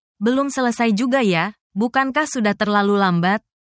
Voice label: indonesia, negative phrases

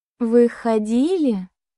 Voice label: russian phrases

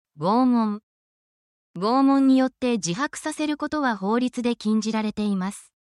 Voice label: essential japanese phrases for crime incidents

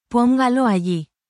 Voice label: spanish, spanish phrases

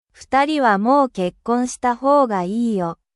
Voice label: japan

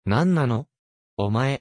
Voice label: anime

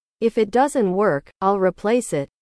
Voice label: english

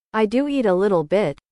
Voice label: english

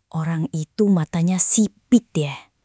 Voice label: indonesian phrases

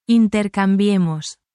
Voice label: spanish, spanish phrases

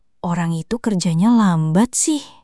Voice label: indonesia, negative phrases

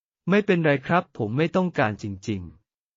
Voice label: Thai, basic thai phrases

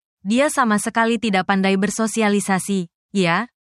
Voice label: indonesian phrases

